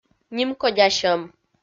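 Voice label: "нимкодясьӧм", Komi-Zyrian, female; kpv audio dict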